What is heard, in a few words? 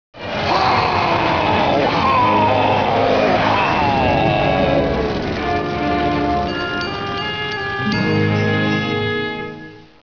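"ho ho ho", male; de christmas on a newplanet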